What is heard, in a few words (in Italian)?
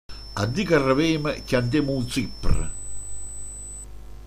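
"zippere", Manfredonia dialect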